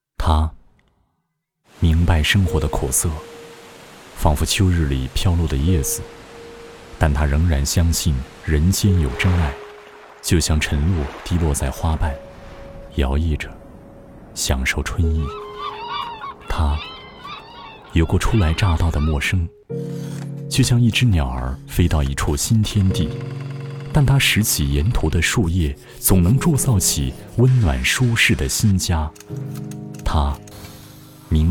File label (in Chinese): 男 清新 微电影独白